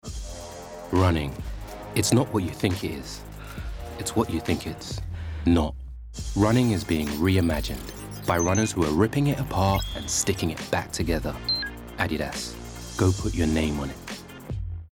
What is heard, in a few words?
commercial; Adidas